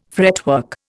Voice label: "fretwork", Indian